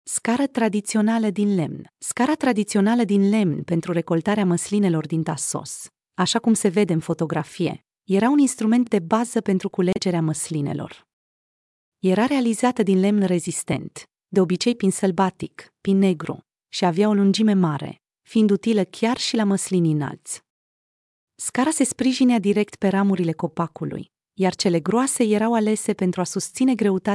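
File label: romanian, Audio guided tour; Σκάλα; Este un exemplu clar al tradiției agricole și al măiestriei meșteșugărești locale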